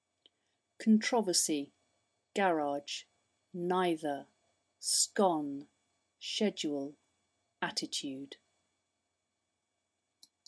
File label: six words Norfolk UK female